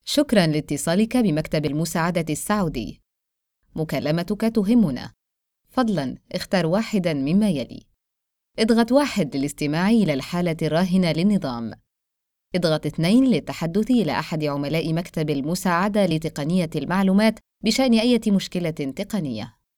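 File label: arabic female narration, voice demos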